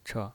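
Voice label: "che", Mandarin Chinese pinyin voice, neutral tone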